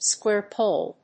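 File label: "square pole", English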